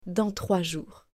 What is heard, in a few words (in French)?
"Dans 3 jours", French